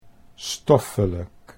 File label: "stoffelijk", Dutch